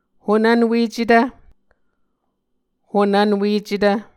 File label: "honvnwecetv", Muscogee